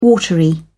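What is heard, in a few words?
"watery", British English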